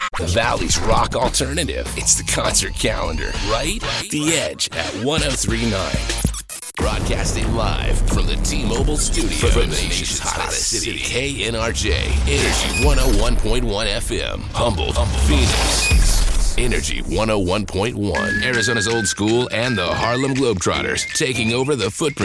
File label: Radio Imaging